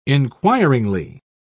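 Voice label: "inquiringly", British English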